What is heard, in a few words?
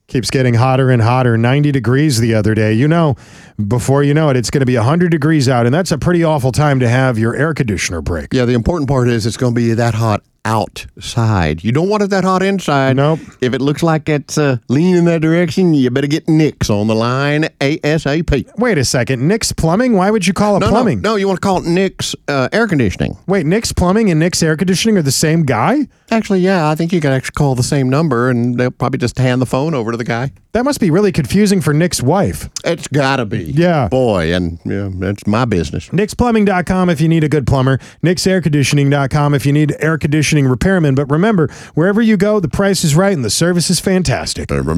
RADIO AD; nicks plumbing radio ads